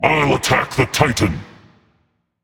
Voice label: vox self attack; smite